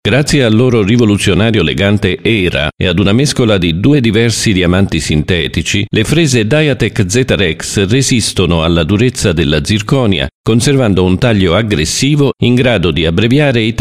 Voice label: VYR Italu balsas, vyras

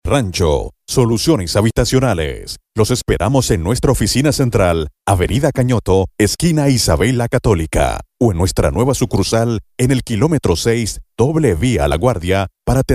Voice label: Ispanu balsas, vyras

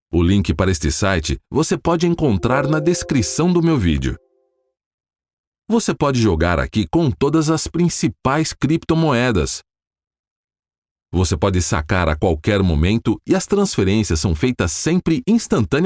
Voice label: (VYR) Brazilu balsas, vyras

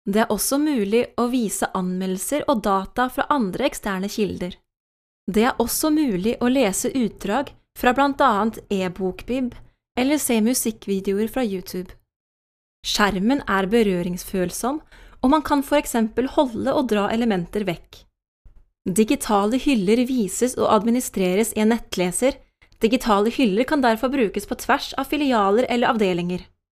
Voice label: (MOT) Norvegu balsas; moteris

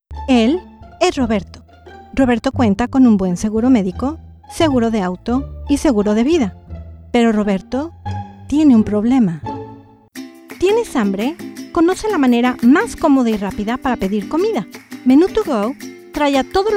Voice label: (MOT) Ispanu balsas; moteris

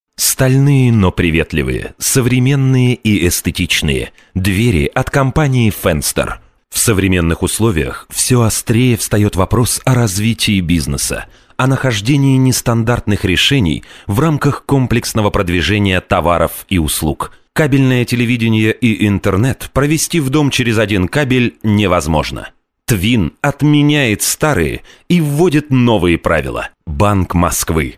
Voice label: Rusiškas balsas, vyras

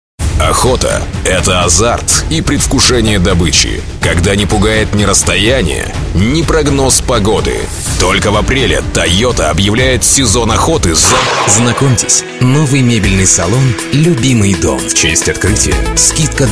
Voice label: Rusiškas balsas, vyras

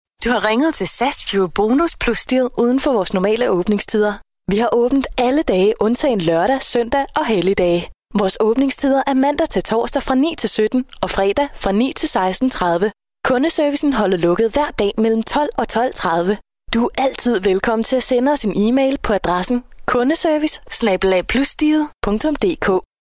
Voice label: (MOT) Danu balsas, moteris

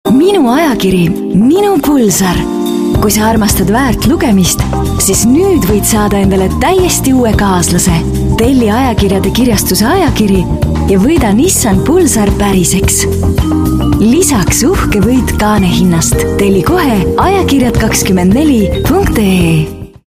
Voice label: MOT Estų balsas, moteris

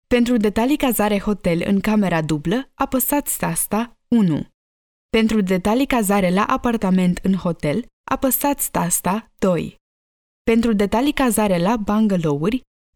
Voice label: (MOT) Rumunu balsas, moteris

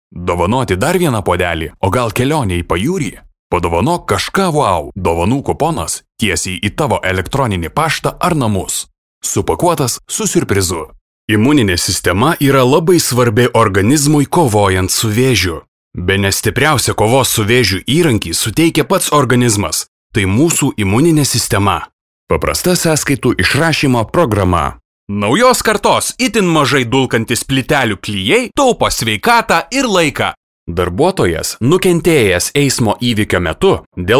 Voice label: balsai lietuviu vyras

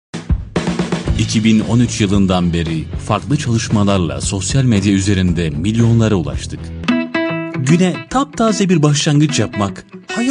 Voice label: VYR Turku balsas, vyras

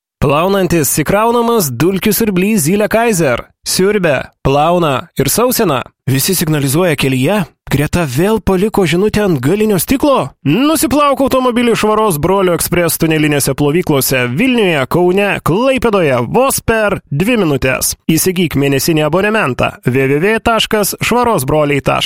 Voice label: vyras; lietuviu

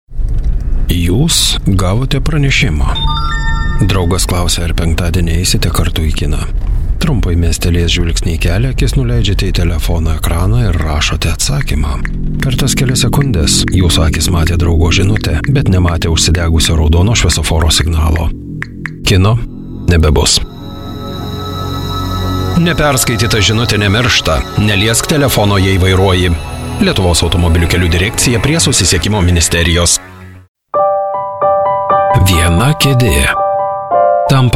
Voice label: lietuviu, vyras

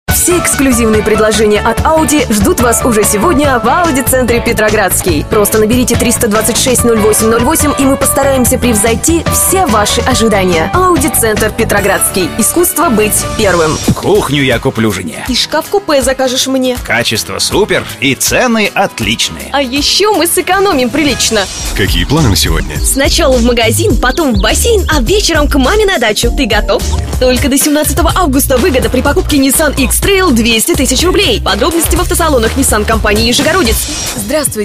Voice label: (MOT) Rusiškas balsas

